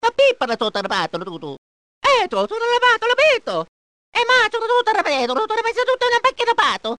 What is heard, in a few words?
gibberish; mario